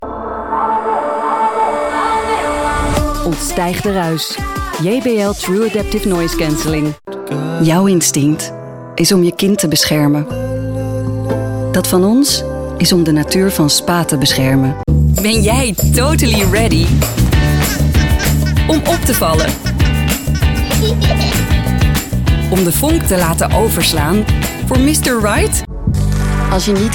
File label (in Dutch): Commercial, Mijn stem is fris